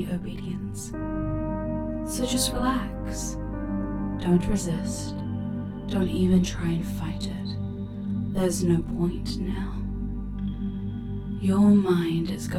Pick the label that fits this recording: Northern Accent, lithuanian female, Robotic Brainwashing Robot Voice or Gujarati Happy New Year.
Robotic Brainwashing Robot Voice